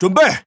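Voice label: Speech Pilots Bomber Ready, Chinese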